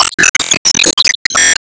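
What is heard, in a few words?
Battle Start Solo; Emo Warcry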